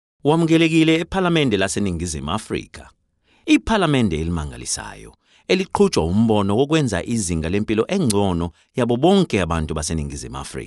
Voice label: Zulu Male

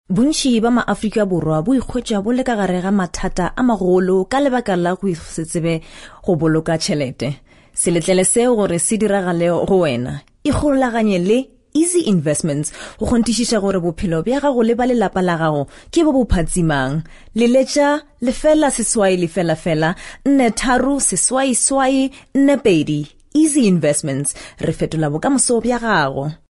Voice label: SEPEDI

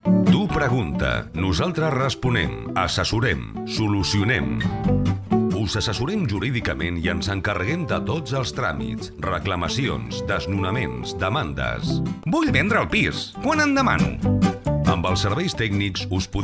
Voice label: Catalan

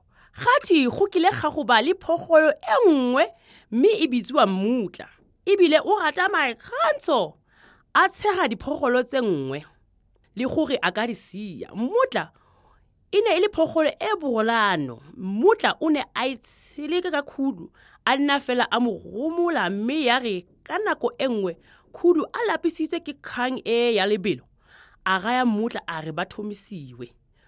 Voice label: SETSWANA

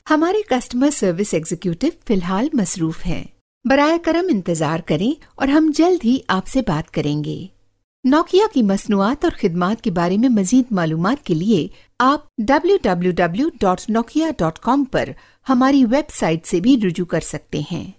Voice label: Urdu

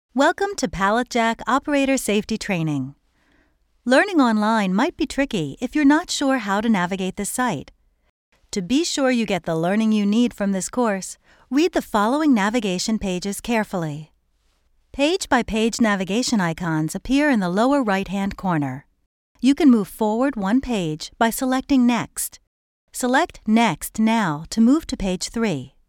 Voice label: Elearning

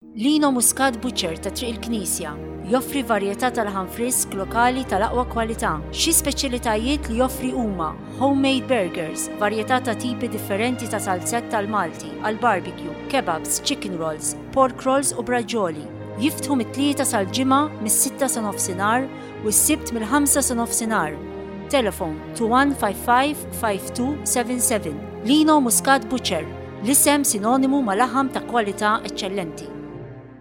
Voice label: MALTESE

